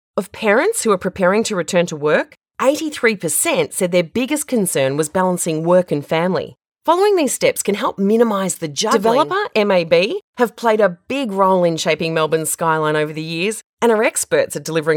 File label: Natural